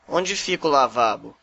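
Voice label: toilet, Brazilian Portuguese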